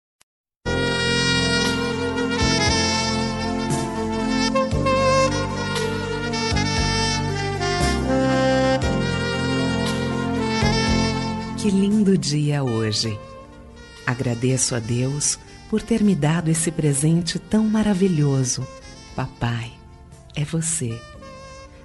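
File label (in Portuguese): pai feminina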